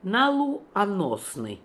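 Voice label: "Naloo osnian", pronunciations; Fourth Way; Beelzebub pronounciations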